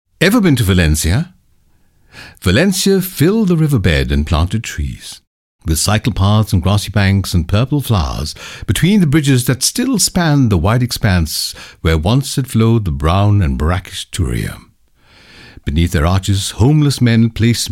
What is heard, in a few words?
voiceover